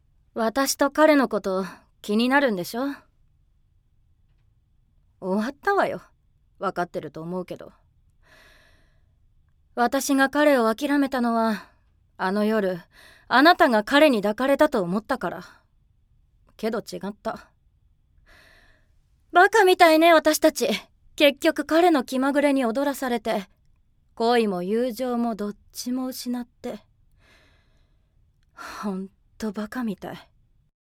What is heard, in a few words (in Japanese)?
外画 20代 落ち着いた女性